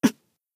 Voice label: Battle Shout; Atsuko